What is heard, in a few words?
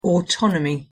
"autonomy", English pronunciation